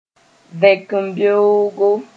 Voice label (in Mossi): "vẽkembeoogo", moore